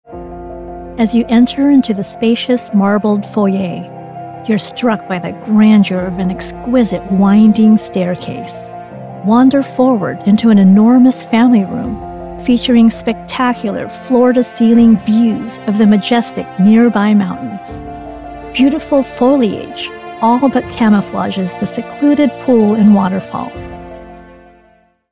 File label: Real Estate Video Tour